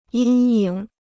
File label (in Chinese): "阴影", Chinese